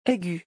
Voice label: "aigüe", French